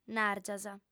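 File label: "nàrgias", female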